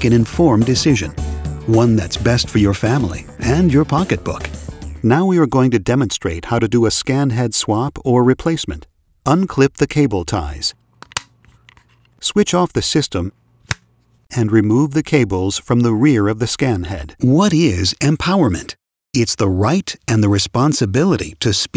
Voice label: Male Voice Over E Learning